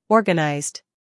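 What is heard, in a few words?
"humor", British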